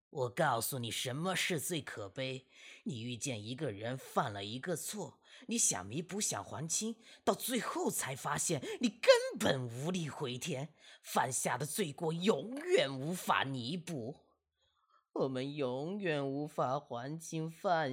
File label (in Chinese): 可悲的老太监